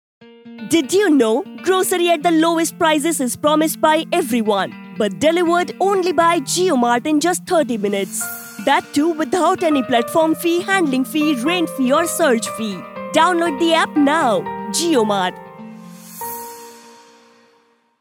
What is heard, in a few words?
Eng; JioMart